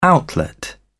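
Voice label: "outlet", British English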